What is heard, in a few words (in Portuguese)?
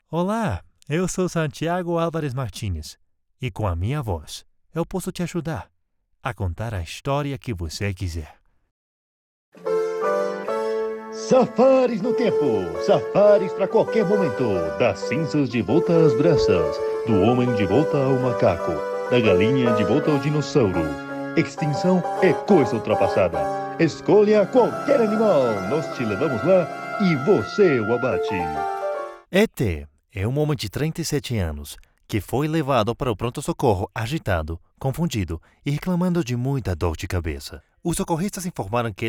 Comercial Português; Demo Comercial Português Dezembro 2023